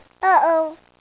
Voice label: Uh Oh